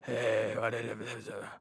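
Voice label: whisper; reaperman; giants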